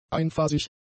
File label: "einphasig", German; adjectives